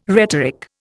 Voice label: "rhetoric", Indian